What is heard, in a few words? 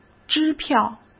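"支票", Mandarin Chinese; hsk; chinese-flashcards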